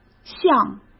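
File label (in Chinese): "向", Mandarin Chinese; hsk; chinese flashcards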